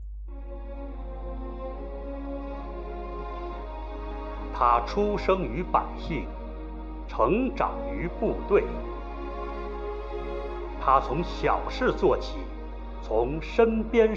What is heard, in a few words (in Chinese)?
专题宣传片; 学雷锋